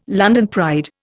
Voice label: "London pride", Indian